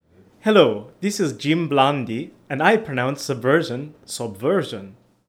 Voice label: swedish, pronunciation